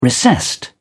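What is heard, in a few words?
"recessed", British English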